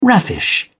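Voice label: "raffish", British English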